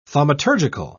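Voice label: "thaumaturgical", British English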